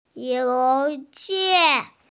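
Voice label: "you2xi4", Mandarin Chinese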